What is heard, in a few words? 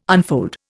"unfold", Indian